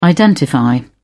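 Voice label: "identify", British English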